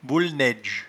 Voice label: "bouelnaidge", patois jura